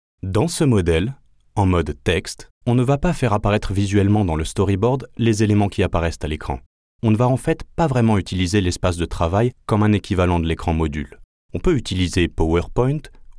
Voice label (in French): Tutoriel; Studio Voix-là